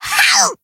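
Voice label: damaged, Japanese; morgana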